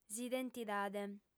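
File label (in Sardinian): "s'identidade", female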